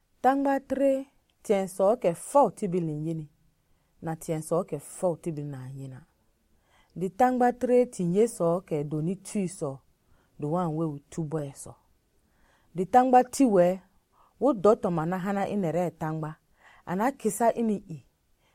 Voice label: Lobiri; Thuukɛ Thãgba Tini; The Spirit World